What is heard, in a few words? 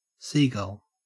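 "seagull" English, Australian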